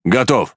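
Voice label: Russian Speech Pilots Bomber Ready; World Of Warships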